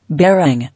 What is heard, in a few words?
"Bearing", English